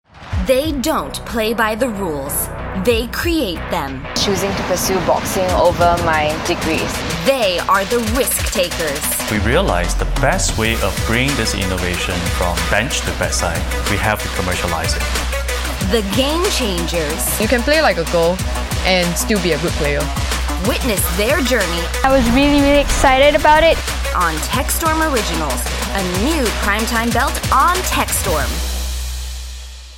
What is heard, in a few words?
Mid-Atlantic English; TechStorm